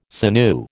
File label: "sinew", American